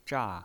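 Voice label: "zha" Mandarin Chinese pinyin voice, fourth tone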